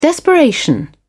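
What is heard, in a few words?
"desperation", British English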